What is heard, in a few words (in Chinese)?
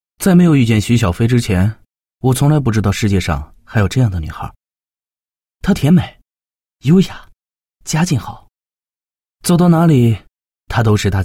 男 旁白 微电影旁白